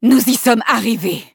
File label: victory, fr; cookie run